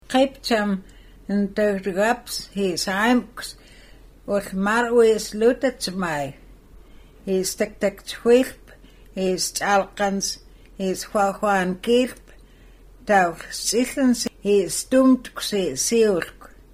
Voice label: Nsyilxcen; Vernon Creek